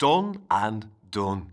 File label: Male; baldurs1